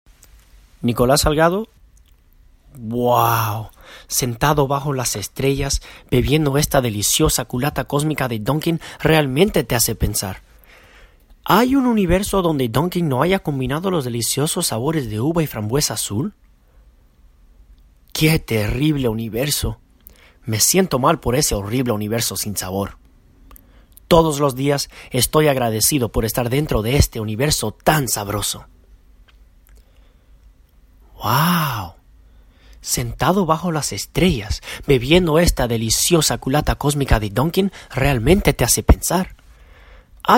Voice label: Spanish